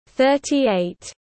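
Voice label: "thirty-eight", English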